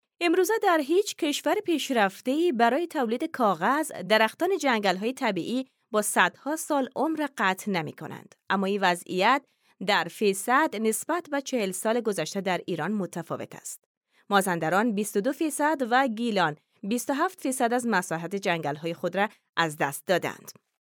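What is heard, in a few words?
Dari Afghanistan female narrative; dari voice over talent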